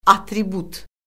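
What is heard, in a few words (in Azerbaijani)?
"atribut", Azerbaijani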